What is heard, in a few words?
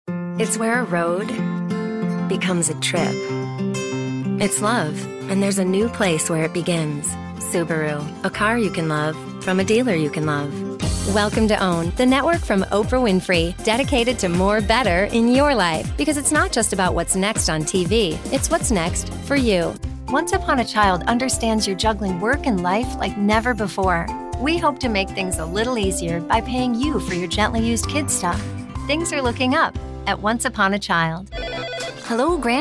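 US English Commercial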